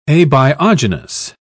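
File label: "abiogenous", British English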